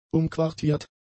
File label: "umquartiert", German; adjectives